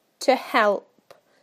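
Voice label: "to help", British English; PictureDictionary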